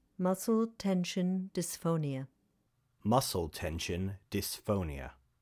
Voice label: Muscle Tension Dysphonia

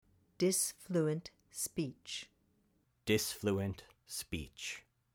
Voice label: Dysfluent Speech

